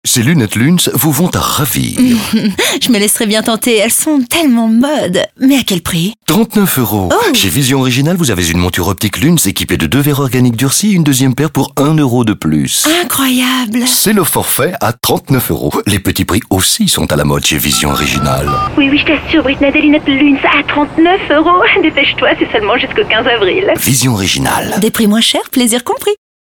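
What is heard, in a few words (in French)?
comedie naturelle et signature gaie, voix off féminine; Pub Radio; Santé; Hygiène; Bébé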